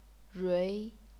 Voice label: "rui2", chinese pinyin

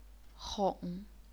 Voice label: "hong3", Chinese pinyin

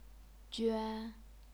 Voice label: "juan1", chinese pinyin; 捐; 鹃; 圈; 镌; 蠲; 涓; 娟

